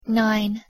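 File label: "nine", English pronunciation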